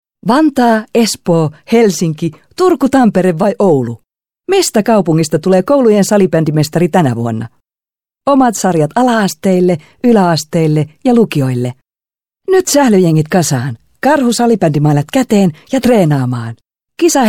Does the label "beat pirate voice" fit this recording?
No